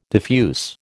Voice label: "diffuse", American